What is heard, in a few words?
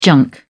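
"junk", British English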